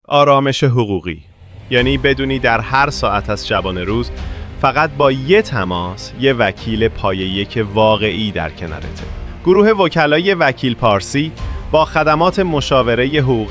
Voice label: telephone legal; مشاوره حقوقی تلفنی